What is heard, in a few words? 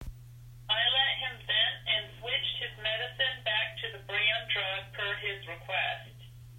phone